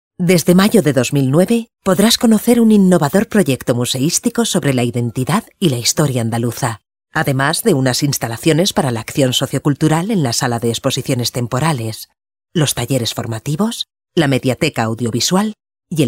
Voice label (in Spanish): Femenino